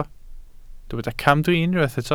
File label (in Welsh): "camdrin", Welsh